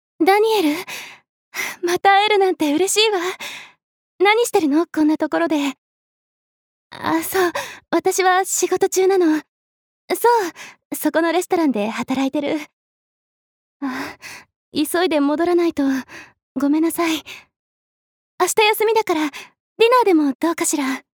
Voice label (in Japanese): 外画